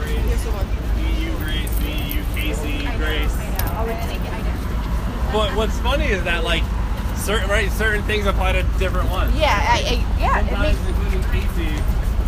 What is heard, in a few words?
loud conversation